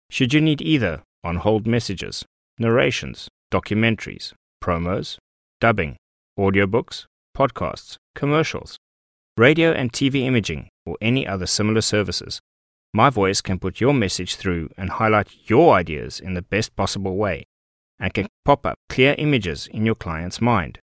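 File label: English South African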